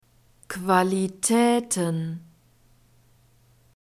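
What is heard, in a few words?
"Qualitäten", German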